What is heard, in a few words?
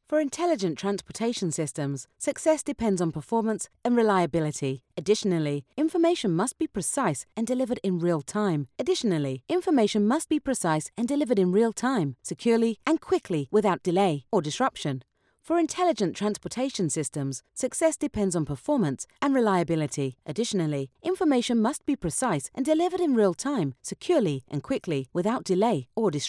IT TECH UPBEAT